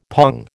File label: "pong", American